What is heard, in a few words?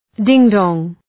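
"ding dong", English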